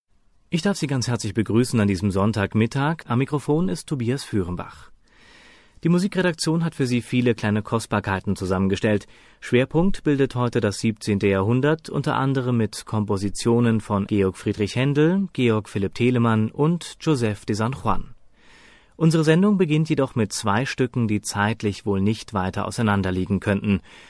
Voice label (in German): moderation